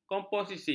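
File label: "komposisi", Malay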